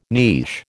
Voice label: "niche", American